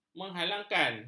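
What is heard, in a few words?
"menghalangkan", Malay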